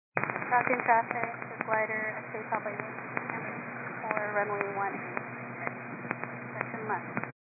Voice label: 122 725MHz, scanner radio